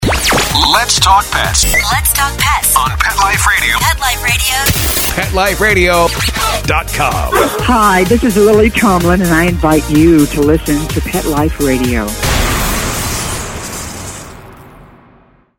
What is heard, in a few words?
Generic Sweeper; PetExpo